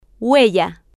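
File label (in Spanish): "huella", spanish, female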